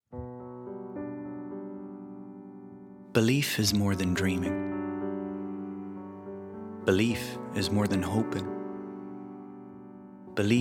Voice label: Commercial; Allied Irish Bank